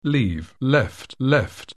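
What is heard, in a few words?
"leave", English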